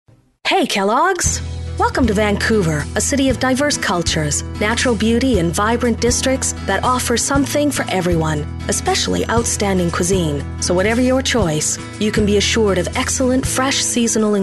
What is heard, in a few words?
Voice Demo